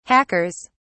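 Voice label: "hackers", British English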